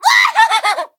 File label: hit, en; cookie run